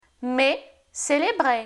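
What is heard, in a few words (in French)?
mes — célébrer, French; Lingvo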